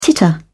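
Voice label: "titter", British English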